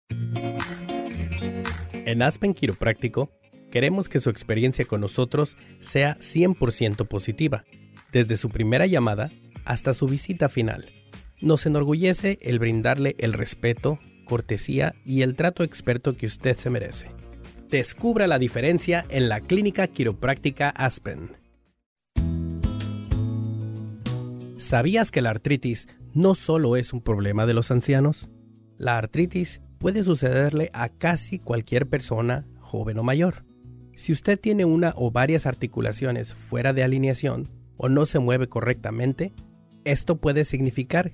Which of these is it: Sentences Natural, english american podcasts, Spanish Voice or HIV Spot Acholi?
Spanish Voice